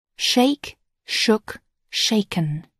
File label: "shake", English